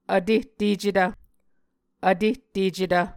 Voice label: "vtehtecetv", Muscogee

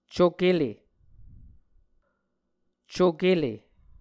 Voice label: "cokele", Muscogee

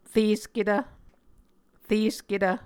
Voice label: "resketv", Muscogee

